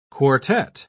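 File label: "quartet", English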